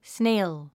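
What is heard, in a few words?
"snail", English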